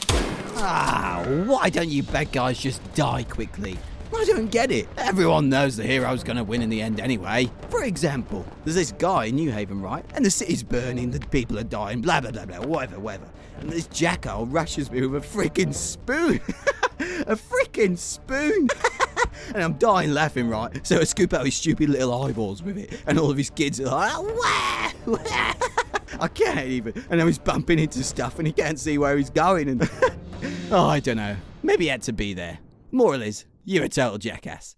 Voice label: Loonatic Aussie